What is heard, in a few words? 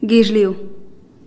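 "gizhliu", Teotitlán del Valle Zapotec; earth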